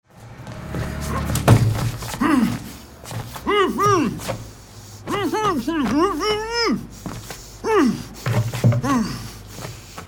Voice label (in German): Hoerspiel Thriller; Starling Projekt